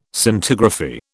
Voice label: "Scintigraphy", British